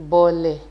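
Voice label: "bole", Nigerian Pidgin, female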